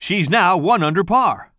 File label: commentator